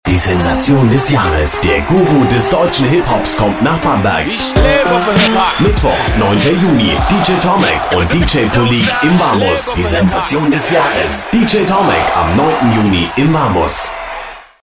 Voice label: werbespot

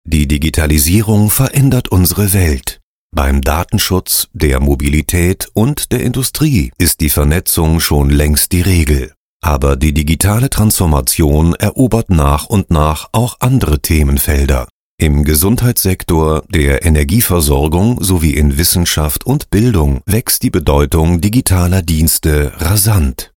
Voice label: dokumentation sachlich neutral

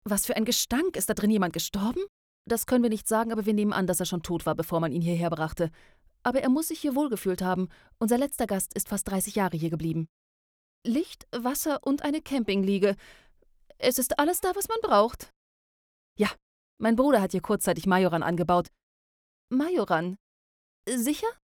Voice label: dialog; lutetia

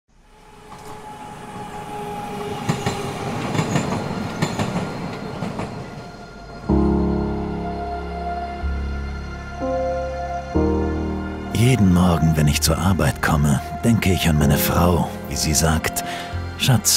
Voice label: werbung mehr als nur ein schloss; abus lockout tagout

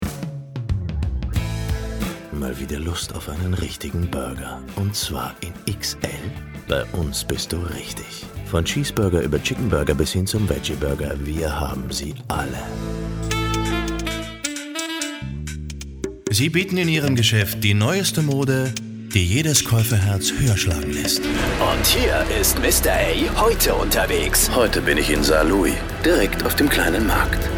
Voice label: werbung

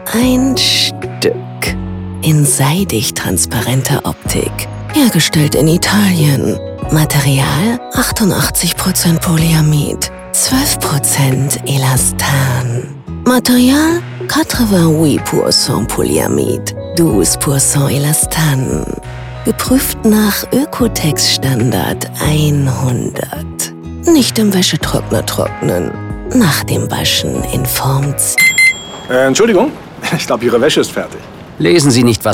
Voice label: funkspot strumpfhose; audible

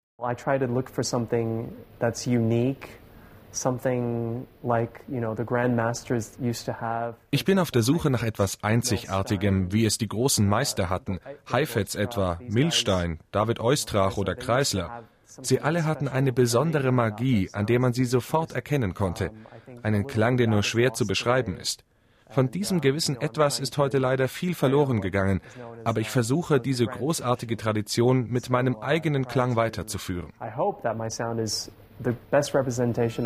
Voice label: overvoice jung

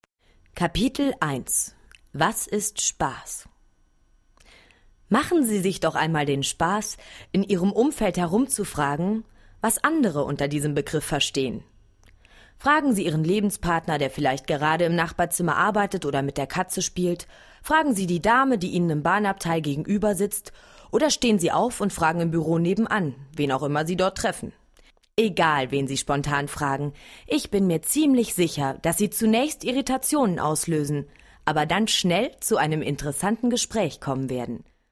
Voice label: sachtext spass

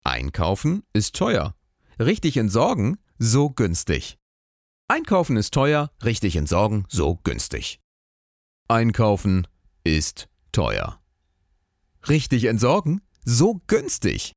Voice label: stimmprobe slogan variationen

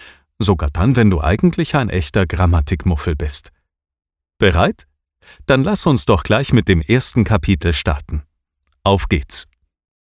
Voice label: italienisch grammatikdusche; jicki

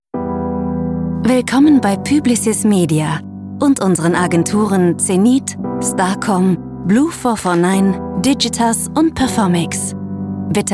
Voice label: telefonansage deutsch englisch serioes freundlich

